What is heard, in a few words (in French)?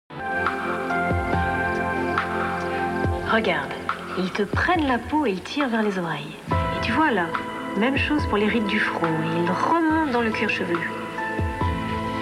Voix Off; Sous le soleil